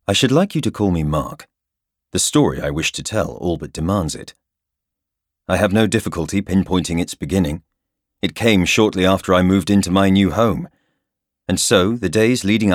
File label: audiobook reel, voiceover